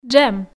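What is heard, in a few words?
"джем", Komi, female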